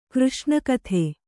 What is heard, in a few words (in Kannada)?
"ಕೃಷ್ಣಕಥೆ", Kannada; křṣṇa kathe; noun